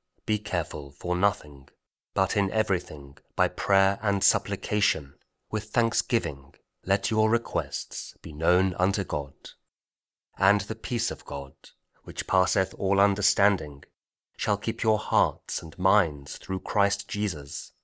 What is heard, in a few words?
meditations prayer; love jesus christ; mental health